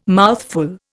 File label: "mouthful", Indian